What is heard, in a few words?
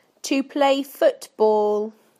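"to play football", British English; PictureDictionary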